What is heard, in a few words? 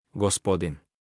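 "gospodin", Serbian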